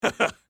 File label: Emote Positive